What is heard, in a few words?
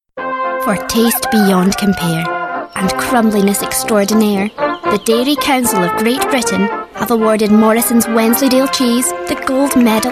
Scottish